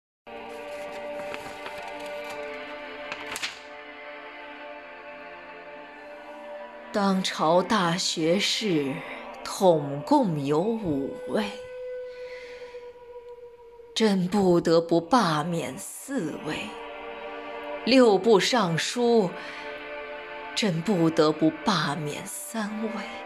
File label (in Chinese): 威严 女帝 中老年